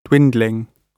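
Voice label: "dwindling", British English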